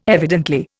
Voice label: "evidently", Indian